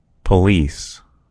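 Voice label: "police", American English; gcompris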